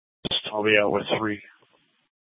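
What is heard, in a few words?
Scottsdale Police South Dispatch; Scttsdle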